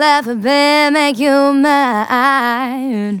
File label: phrase female